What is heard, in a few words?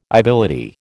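"ibility", American English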